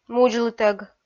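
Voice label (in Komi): "мудзлытӧг", Komi, female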